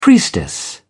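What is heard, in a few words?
"priestess", British English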